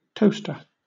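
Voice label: "toaster", British English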